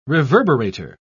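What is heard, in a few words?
"reverberator", British English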